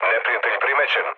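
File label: Serbian enemy detected mood low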